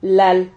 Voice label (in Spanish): "lal", Silabas